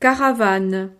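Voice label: "caravane", French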